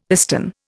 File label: "piston", Indian; Dictionary